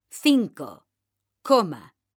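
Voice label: "CINCO COMA", Spanish, female; mekanta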